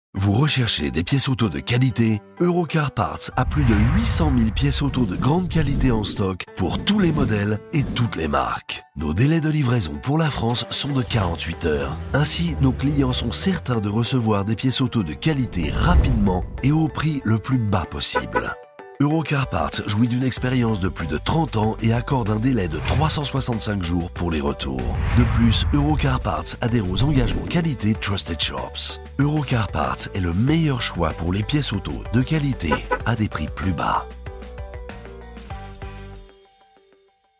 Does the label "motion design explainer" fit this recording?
Yes